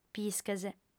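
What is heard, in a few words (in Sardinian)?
"pisches", female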